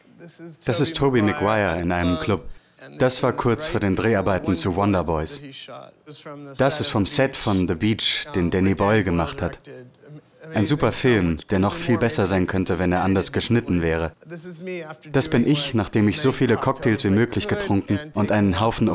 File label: voice over; wolf of wall street